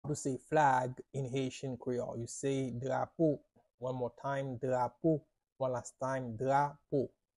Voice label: "Drapo" Haitian Creole pronunciation by a native Haitian tutor; How to say Flag in Haitian Creole